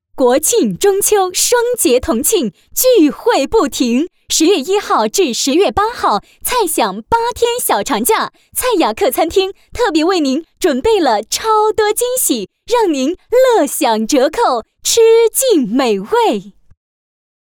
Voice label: 女 某餐厅活动宣传; 国庆中秋双节同庆

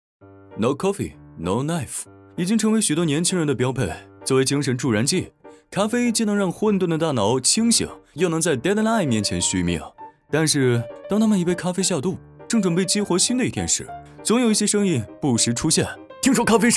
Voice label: 男 抖音; 丁香医生永璞咖啡

